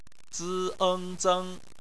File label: "zeng", Chinese